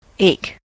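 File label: "ache", US English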